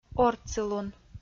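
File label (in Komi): "ортсылун", Komi, female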